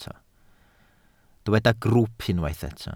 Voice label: "grwwp", Welsh